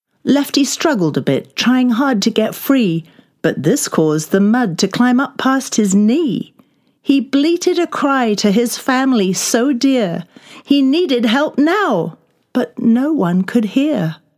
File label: childrens audiobook; lefty